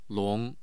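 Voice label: "long", Mandarin Chinese, tone 2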